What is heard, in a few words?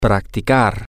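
to practice, spanish, male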